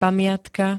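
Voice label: "pamiatka", Slovak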